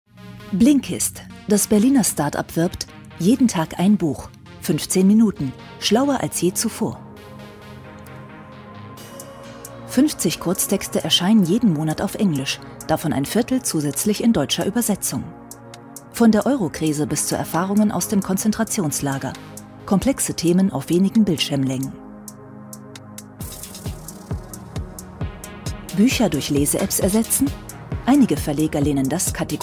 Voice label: doku off sachl; Sprecher in Berlin, Bremen, Hamburg, Hannover, Kiel, Lübeck, Lüneburg

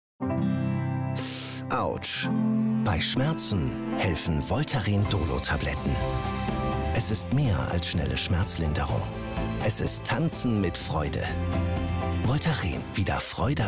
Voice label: werbung; voltaren-dolo

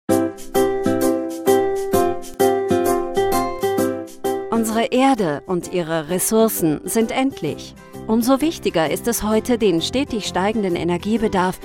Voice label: imagefilm kompetent freundlich; puravis

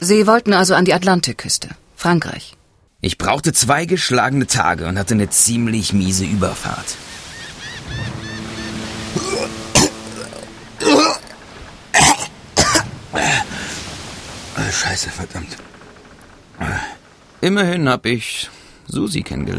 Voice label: horspiel; gravenspark

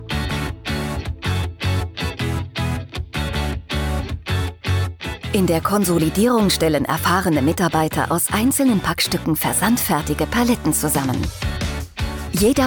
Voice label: Imagefilm Logistikzentrum; blanco

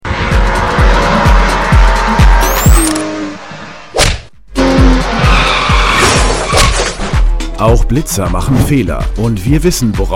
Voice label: werbung blitzerfalle

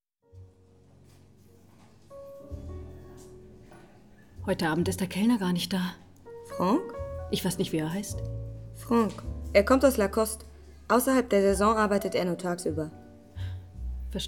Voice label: synchrondemo stimme swimmingpool

